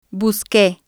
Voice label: "busque", spanish

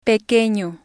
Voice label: "pequeno", spanish

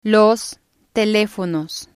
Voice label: "los telefonos", spanish

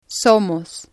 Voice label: "Somos", spanish

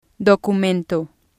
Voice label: "Documento", spanish

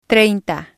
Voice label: "treinte", spanish

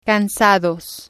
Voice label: "cansados", spanish

